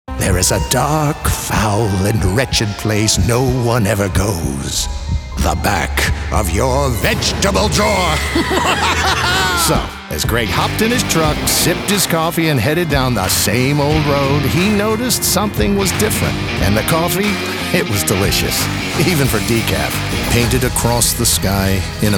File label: Commercial